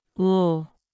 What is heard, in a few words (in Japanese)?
子音Lのみの発音